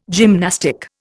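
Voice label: "gymnastic", Indian English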